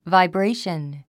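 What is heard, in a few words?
"vibration", 英単語 発音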